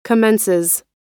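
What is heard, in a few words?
"commences", American English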